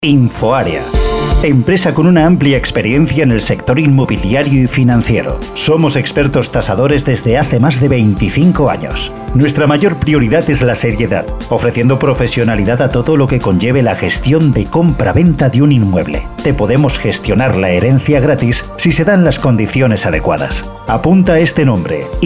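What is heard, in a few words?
comercial, Spanish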